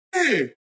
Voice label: Policeman Warn; bobtherobber2